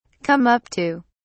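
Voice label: "come up to", British English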